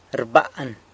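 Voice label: "rban", San Lucas Quiaviní Zapotec; follows a medical diet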